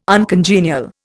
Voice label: "uncongenial", Indian

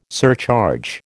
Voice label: "surcharge", American

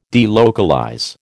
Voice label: "delocalize", American

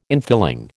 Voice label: "infilling", American

